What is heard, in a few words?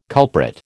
"culprit", American